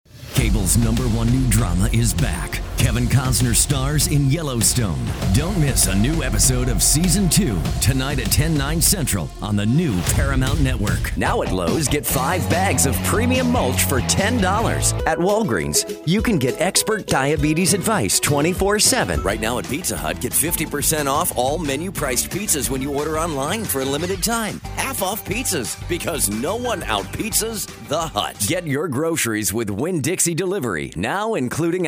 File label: commercial